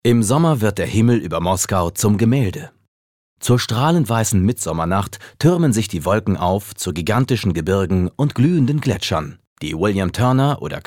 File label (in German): Kommentar Neutral